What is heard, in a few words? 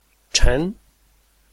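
"chen", Chinese, tone 2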